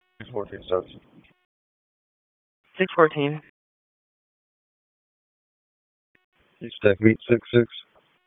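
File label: Police Southwest District Dispatch; Raleigh; wake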